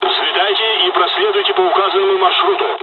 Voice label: mission fly, dialogs russian; War Thunder